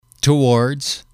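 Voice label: "towards", English, male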